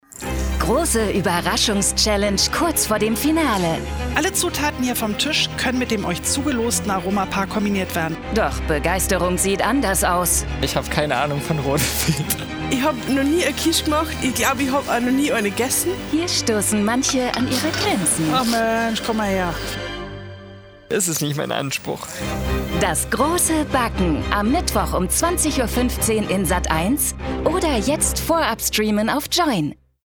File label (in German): trailer tv; das grosse backen